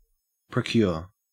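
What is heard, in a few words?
"procure", Australian English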